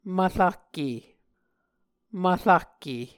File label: "mvrahke", Muscogee